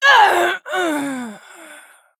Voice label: Female Worgen Death

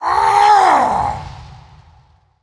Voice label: Male Human Death

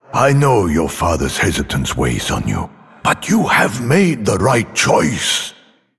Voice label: Male Draenei; Velen; Anduin

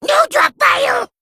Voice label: Male Kobold Attack

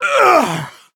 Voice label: Male Dwarf Death

